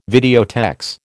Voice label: "videotex", American